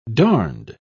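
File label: "darned", English, British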